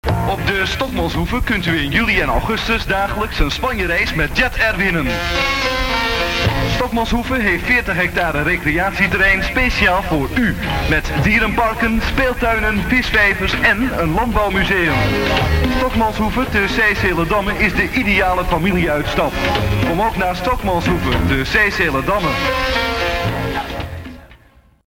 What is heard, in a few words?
commercial